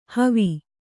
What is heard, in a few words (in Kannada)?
"ಹೂವು", Kannada